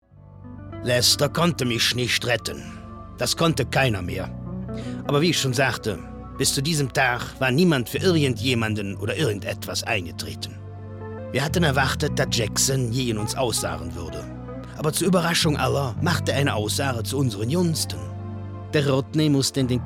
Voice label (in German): dialekt